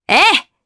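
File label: Vox Aff Japanese; Naila; kingsraid; jp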